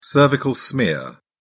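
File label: "cervical smear", British English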